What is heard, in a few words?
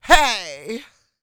FEM SPOKEN; ILIO; Vocal Planet VOL-4; R&B & Dance